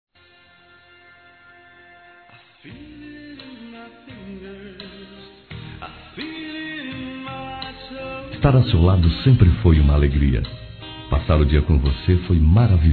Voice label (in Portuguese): Hoje o Dia foi Especial Masculino, Telemensagens